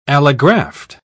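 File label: "allograft", British English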